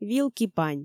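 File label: "вилки-пань", Komi, female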